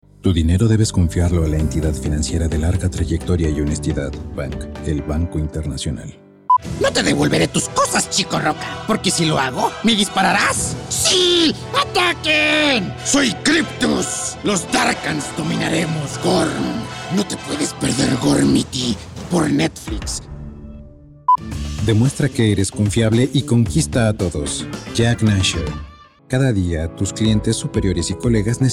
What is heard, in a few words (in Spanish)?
locución